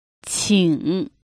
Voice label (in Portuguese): por favor em mandarim